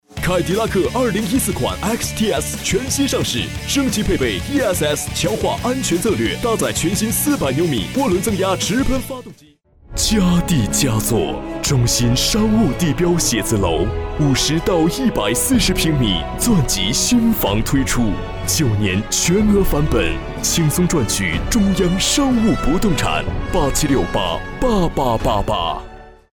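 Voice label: Mandarin Chinese, China, male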